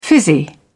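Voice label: "fizzy", British English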